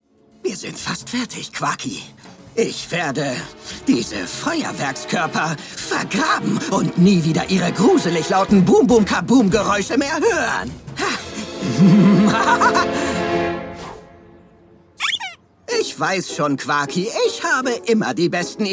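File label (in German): Synchron, Männer; Die Superkitties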